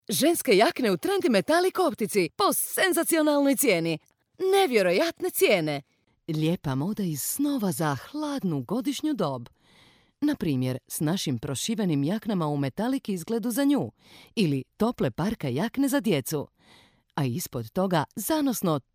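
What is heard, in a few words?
Croatian, female, commercial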